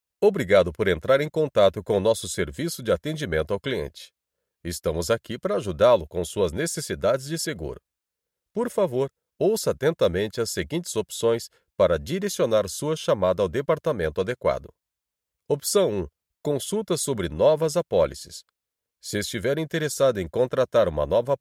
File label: ivr